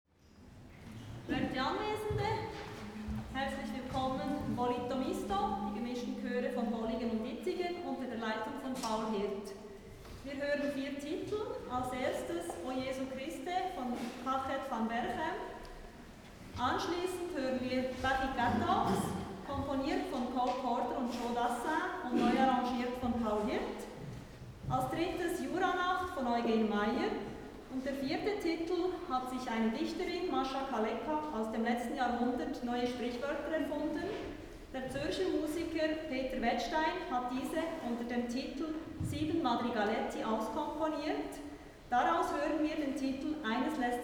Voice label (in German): Ansage